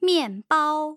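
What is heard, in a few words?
"mianbao", Mandarin Chinese, tone pairs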